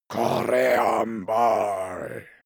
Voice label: male curse evil